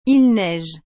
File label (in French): "Il neige", French